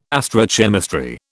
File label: "astrochemistry", British